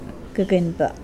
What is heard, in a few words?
Mokilese fingernail